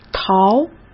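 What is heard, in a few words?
"掏", Mandarin Chinese; hsk; chinese flashcards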